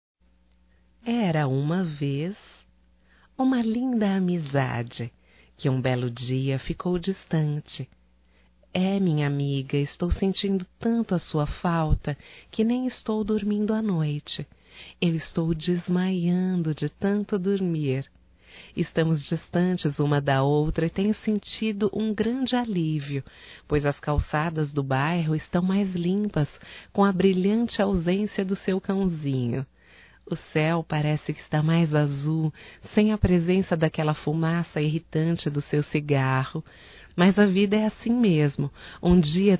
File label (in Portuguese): Saudades zueira Feminino; Telemensagens 2017; Otimismo